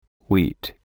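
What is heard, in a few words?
"wheat", English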